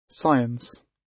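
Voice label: "science", British; Study, education, research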